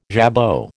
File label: "jabot", American